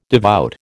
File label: "devout", American